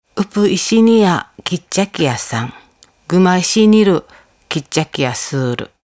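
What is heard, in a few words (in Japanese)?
Nakijin, しまくとぅば; 大石マギイシネーヤ キッチャキヤ サン。; 小石グマイシネール キッチャキヤ スール。; 沖縄本島北部の言葉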